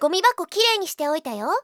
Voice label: trash, Japan Anime Girls; Windows; Bing; Nanako